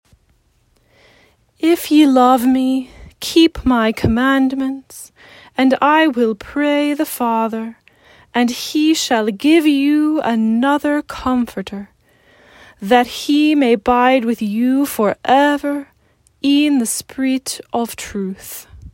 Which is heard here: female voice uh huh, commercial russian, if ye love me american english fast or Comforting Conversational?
if ye love me american english fast